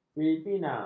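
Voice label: "filipina", Malay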